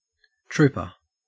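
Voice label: "trooper", Australian English; pronunciations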